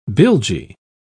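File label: "bilgy", English, uk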